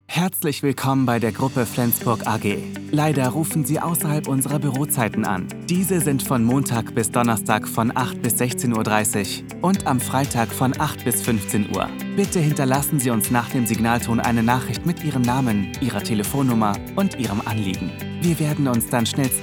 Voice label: Mailbox

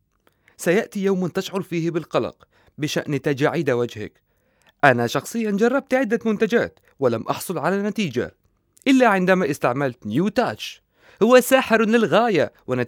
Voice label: advertisment dub

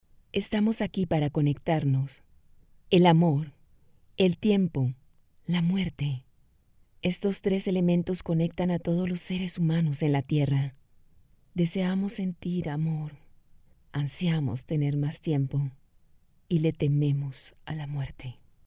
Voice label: spot

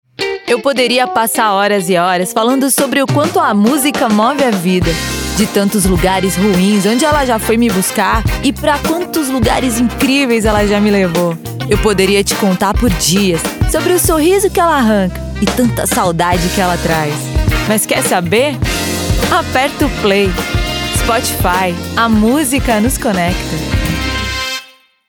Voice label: Natural Voice Over Brazilian Portuguese

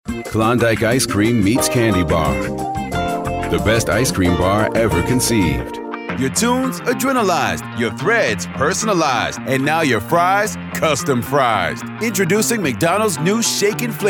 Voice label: Urban

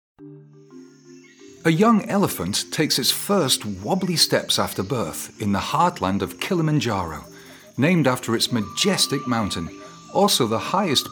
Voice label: VO Documentary

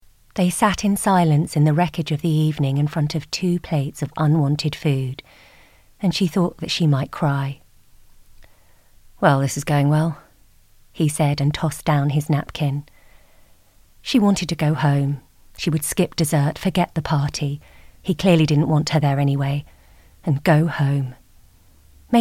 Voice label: Audio Book British; One Day; Voice123

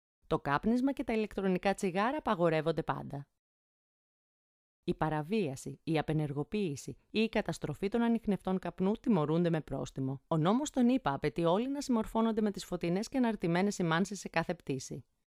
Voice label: American

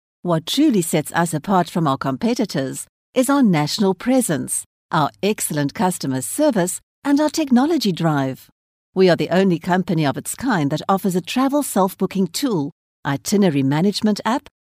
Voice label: Corporate English

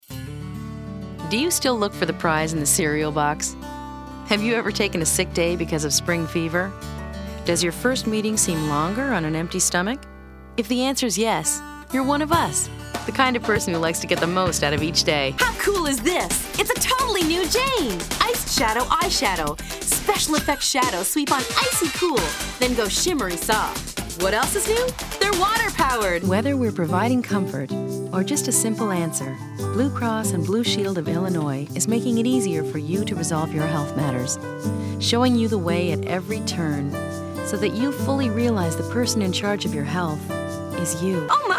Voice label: Voiceover

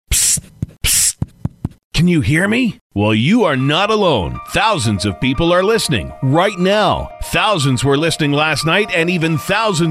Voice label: radio promo

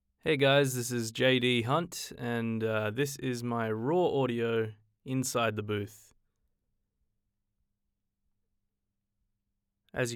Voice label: RAW Studio Audio

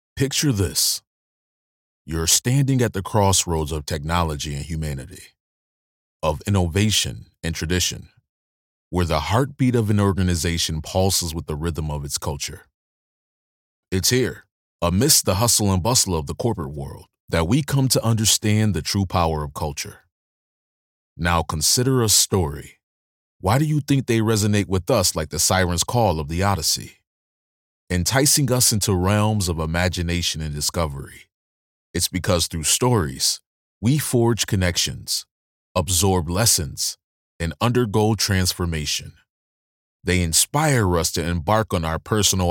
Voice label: Narration Storytelling

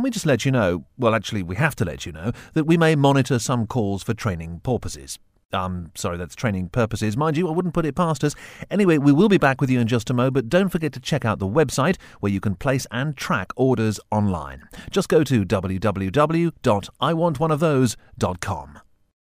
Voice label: ON HOLD

